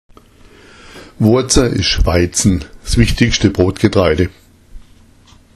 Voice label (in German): woaza, schwaebisch; Woaza ist Weizen, das wichtigste Brotgetreide.